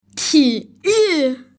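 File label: "ti yu", Mandarin Chinese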